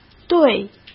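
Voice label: "对", Mandarin Chinese; chinese-flashcards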